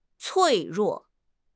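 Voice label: "脆弱", Mandarin Chinese; HSK; HSK6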